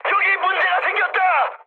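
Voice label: Korean aircraft damaged mood high; War Thunder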